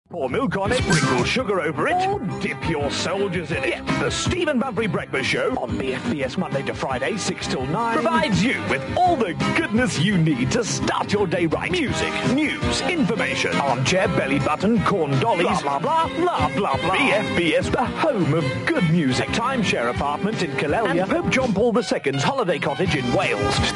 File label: breakfast show trailor; bfbs